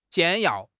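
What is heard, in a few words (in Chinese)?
"简要", Chinese; HSK; HSK6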